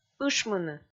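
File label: "ышмыны", Komi, female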